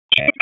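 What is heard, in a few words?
Raleigh Police Southeast District Dispatch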